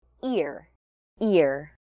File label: "Ear" pronunciation